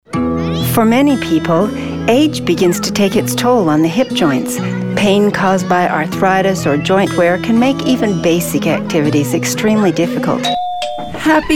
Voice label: commercial